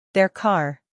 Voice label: "their car", English